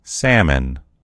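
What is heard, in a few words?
"salmon", American English; gcompris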